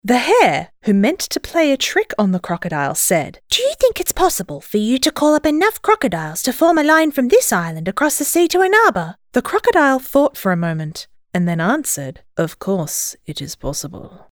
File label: eng